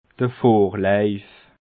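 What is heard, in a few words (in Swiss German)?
"vorlaif", Alsatian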